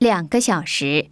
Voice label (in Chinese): chinese 5 hour; samsung YP-U5; Audio User Interface